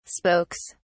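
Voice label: "spokes", British English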